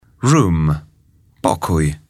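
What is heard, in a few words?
"room", English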